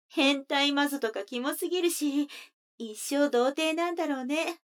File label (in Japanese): 女の子 台詞, ASMR